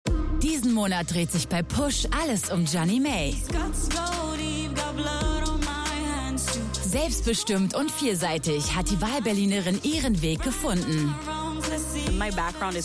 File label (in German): Sprecherin; MTV Push